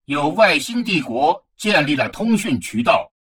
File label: advisor notification alien empire communication, chinese; Stellaris